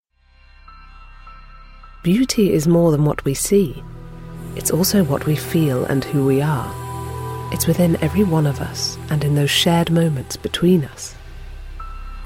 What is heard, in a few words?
voiceover